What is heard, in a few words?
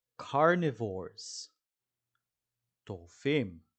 "carnivores" – "טורפים"